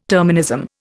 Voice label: "terminism", Indian